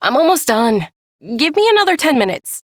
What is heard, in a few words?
Ambient Female, Dialog; Duviri